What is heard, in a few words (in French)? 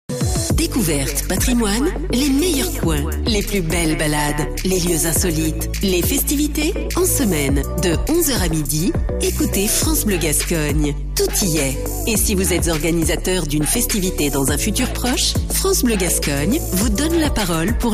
PROMO; FBG DECOUVERTES; France BLeU GASCOGNE